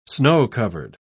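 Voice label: "snow-covered", British English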